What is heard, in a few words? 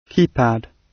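"keypad" British English; Technology; Công nghệ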